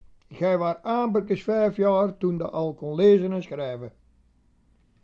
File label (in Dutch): Aamperkes Gij waart aamperkes veef jaor, dialect Sprang-Capelle